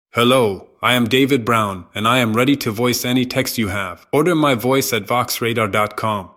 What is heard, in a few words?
USA